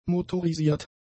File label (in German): "motorisiert", German; adjectives